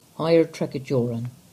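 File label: "Higher Tregajorran", English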